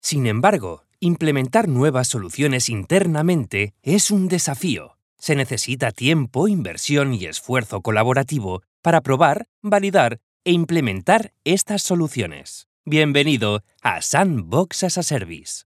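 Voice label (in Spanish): Video de Producto Corporativo, voz en off; Product Video – Corporative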